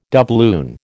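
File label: "doubloon", American English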